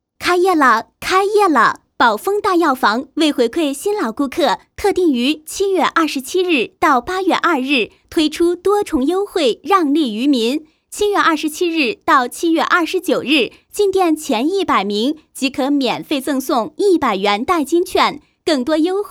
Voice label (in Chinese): 女 促销 激情 干音 开业特惠